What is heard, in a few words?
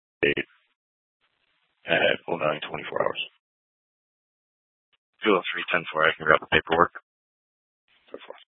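Scottsdale Police South Dispatch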